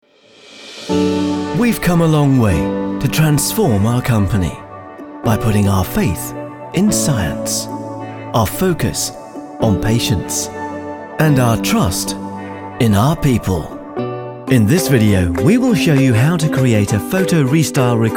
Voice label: Corporate